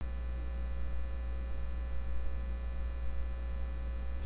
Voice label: whisper